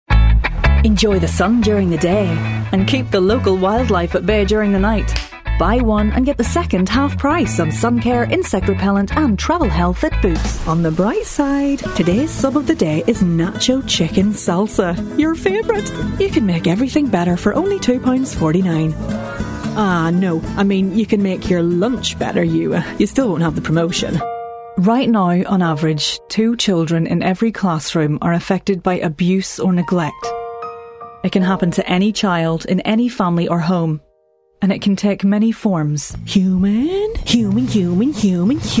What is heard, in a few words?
northern irish, commercial